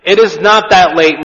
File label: It is not THAT late de echo Vocals